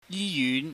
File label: "yi yuhn", Cantonese